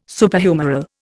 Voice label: "Superhumeral", Indian